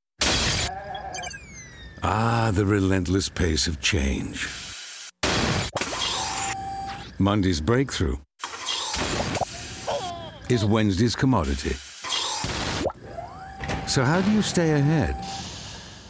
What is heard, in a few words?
Commercial; IBM